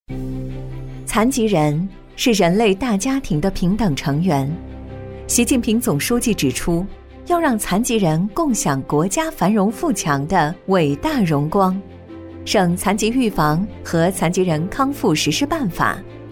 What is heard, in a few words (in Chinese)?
女, 党政专题, 智慧助残, 正式稳重; 大气稳重; 成熟知性